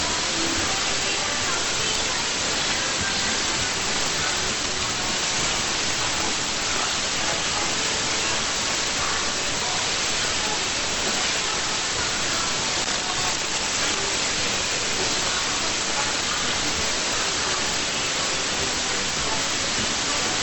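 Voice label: Radio Thailand NR1 3db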